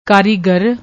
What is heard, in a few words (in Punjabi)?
"kaarigar", Punjabi